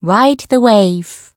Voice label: Voice variable chant; nagi

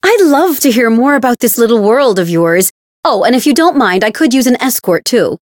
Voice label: story; hisumena

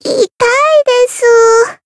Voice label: damaged jp; soira

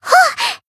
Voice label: Voice attack, jp

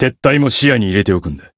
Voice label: encount fear, jp

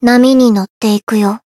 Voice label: variable chant jp; nagi

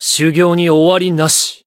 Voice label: growth, jp; shion

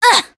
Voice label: Voice damaged; kikyou

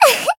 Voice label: Voice attack, jp; leviachan

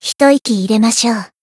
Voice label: Voice heal jp

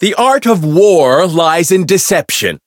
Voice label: Voice variable chant; shion

